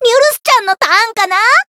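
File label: Voice variable chant, Japanese; myrus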